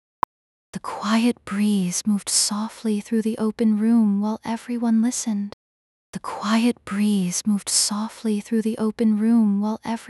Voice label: speech breathy